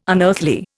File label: "unearthly", Indian